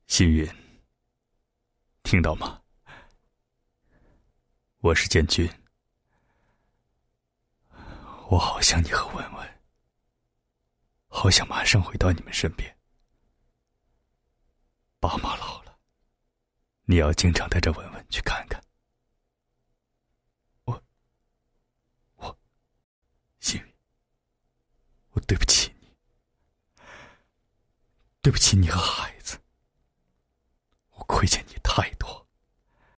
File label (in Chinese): 男 角色 父亲